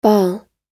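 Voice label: "ball", American English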